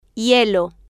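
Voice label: "hielo", Spanish, female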